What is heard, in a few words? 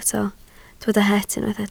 "het", Welsh